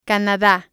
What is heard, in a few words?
"canada", spanish